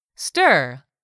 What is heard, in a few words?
"stir", English; 3000 words